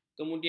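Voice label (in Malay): "kemudian", Malay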